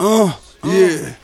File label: "uh yeah", voice